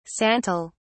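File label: "santol", British English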